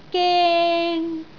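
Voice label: "geng1", Cantonese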